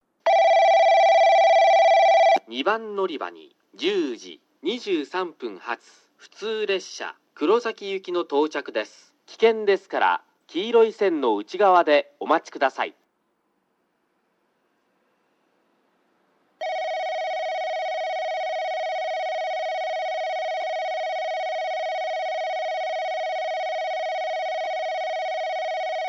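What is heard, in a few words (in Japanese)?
2番のりば接近放送（普通 黒崎行き）, 男声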